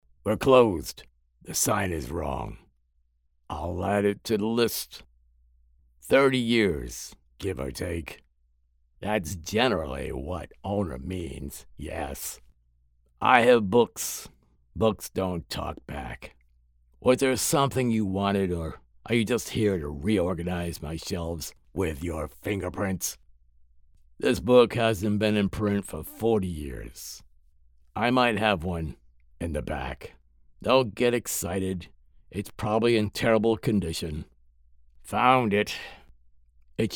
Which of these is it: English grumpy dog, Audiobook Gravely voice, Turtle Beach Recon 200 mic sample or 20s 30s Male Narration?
Audiobook Gravely voice